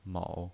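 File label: "mou", pinyin voice, Mandarin Chinese, third tone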